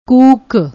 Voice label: "kook", Punjabi

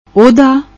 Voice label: "ohda", Punjabi; ਓਹਦਾ

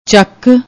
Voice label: "chak", Punjabi; ਚੱਕ

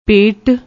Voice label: "pait", Punjabi

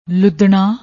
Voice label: "loodna", Punjabi

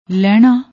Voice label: "lehnaa", Punjabi

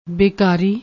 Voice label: "bekaari", Punjabi

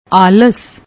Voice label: "aals", Punjabi; ਆਲਸ

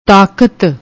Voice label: "taakat", Punjabi; ਤਾਕਤ

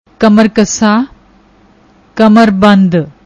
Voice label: "kamrkusa", Punjabi; ਕਮਰਬੰਦ

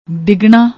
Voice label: "digna", Punjabi; ਡਿਗਣਾ; ڈِگنا; verb, intransitive

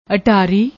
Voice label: "attari", Punjabi; ਅਟਾਰੀ

